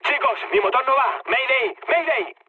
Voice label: damaged engine, mood med, speech; War Thunder

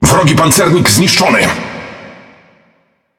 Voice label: Speech Destroy Enemy Battleship, PL; World Of Warships

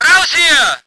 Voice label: voice ger vehicle

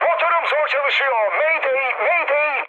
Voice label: damaged engine, mood high, speech tur; War Thunder

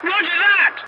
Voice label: voice message yes, english; War Thunder

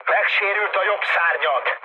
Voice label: right wing, speech hun; War Thunder

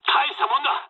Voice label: jp trick, speech jp; War Thunder

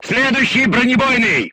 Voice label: voice message load AP, crew tanks russian

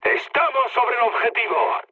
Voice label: near waypoint, mood med, speech; War Thunder

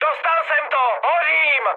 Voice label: on fire, speech cze, mood high; War Thunder

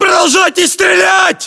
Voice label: order action boost, ru; localized english

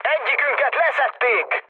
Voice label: aircraft destroyed, speech hun; War Thunder

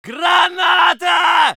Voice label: voice sov infantry

